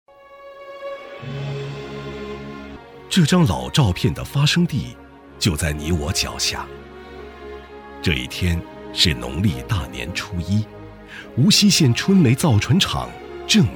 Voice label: 男 纪录片 磁性讲述; 高端磁性; 质感磁性; 中国乡镇企业博物馆尾厅

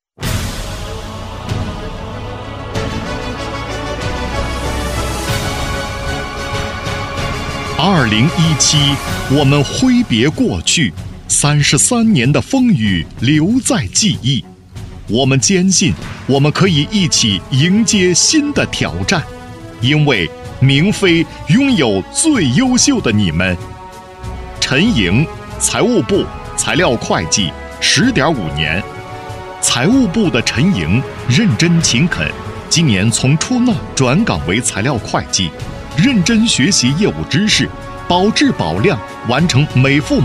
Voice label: 男 颁奖专题; 男30-柔和 大气浑厚

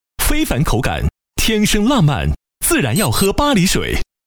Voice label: 男 广告配音 年轻 质感; 磁性质感; 年轻时尚; 巴黎水

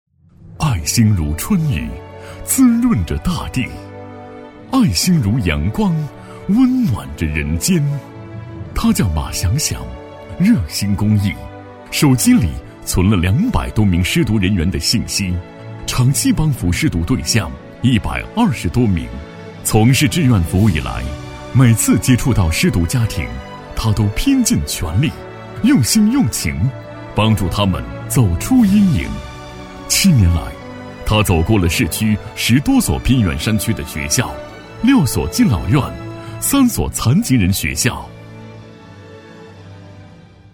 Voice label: 男 先进人物颁奖, 大气浑厚

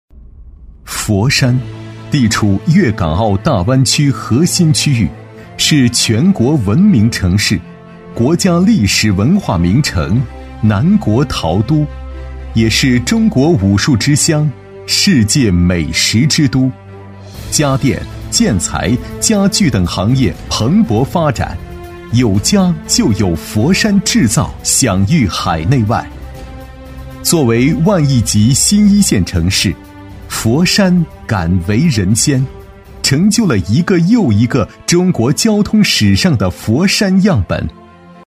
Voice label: 男 城市宣传 磁性大气; 年轻厚重; 大气浑厚; 佛山创建国家'公交都市'示范城市

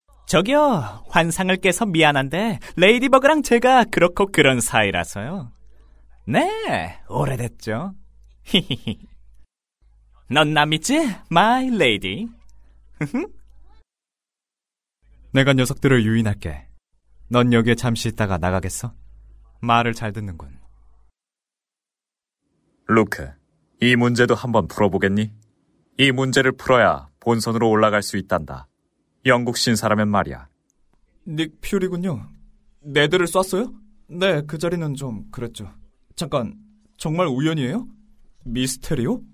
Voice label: 韩语男声

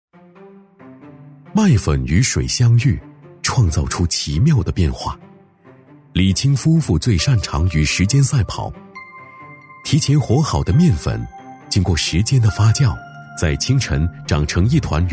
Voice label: 男 纪录片 舌尖风格; 美食; 大气主流 舌尖感

